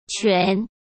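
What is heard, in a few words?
"拳", Mandarin Chinese; hsk; chinese flashcards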